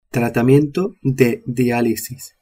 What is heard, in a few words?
"dialisis", spanish